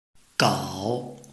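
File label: "gao", Chinese, tone 3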